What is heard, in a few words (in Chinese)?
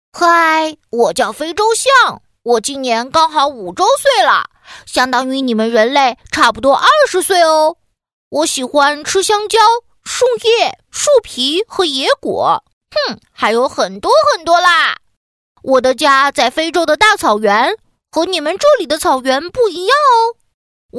女 童声 男童 动物配音